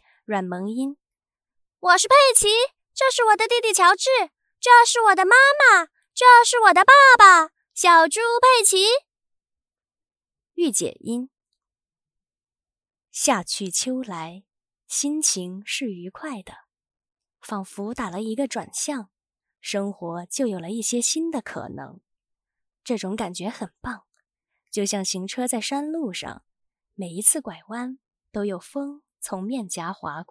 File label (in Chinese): 四种 声线; 挑战